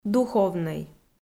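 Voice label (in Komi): "духовнӧй", Komi, female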